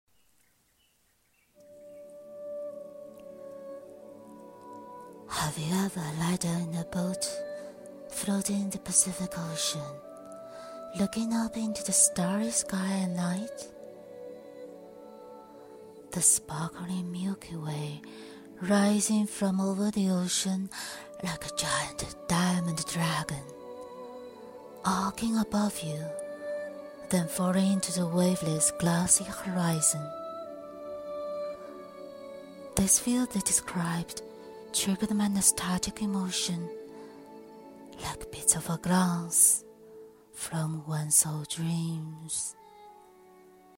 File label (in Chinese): 英语湿音